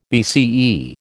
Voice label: "BCE", American